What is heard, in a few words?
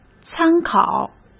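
"参考", Mandarin; chinese-flashcards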